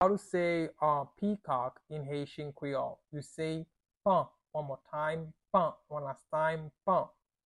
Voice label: Peacock in Haitian Creole Pan pronunciation by a Haitian teacher